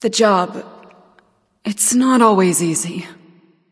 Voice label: taunt directed; ratatoskr; smite; pele

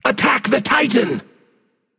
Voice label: vox attack m; smite; thoth

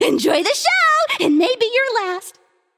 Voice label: vox emote; smite; izanami

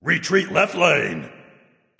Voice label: vox retreat; smite; xingtian

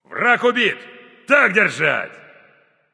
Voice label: slain enemy, russian; caster

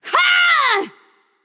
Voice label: battlecry; smite; pele

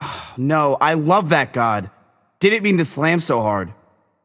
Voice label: taunt directed; smite; thanatos; neith

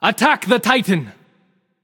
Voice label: vox attack; smite; hou yi

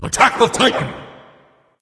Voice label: vox attack m; smite; khepri

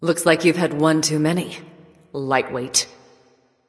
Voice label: taunt directed; bacchus; Smite; bastet

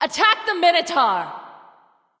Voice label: vox attack m; smite; nemesis; voicepack

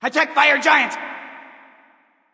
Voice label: vox attack f; smite; mercury; voicepack

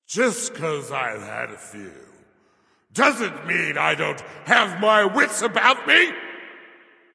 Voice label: taunt tipsy; bacchus

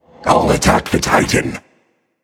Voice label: vox self attack; smite; hades

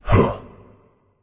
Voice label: grunt; smite; hera; argus

